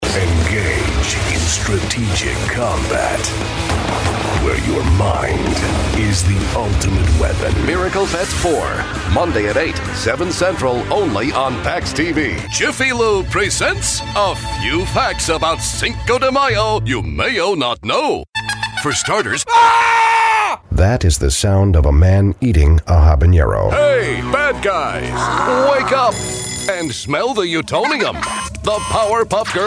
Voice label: Commercial